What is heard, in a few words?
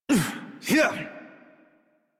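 horse start adult, English; Warframe; Duviri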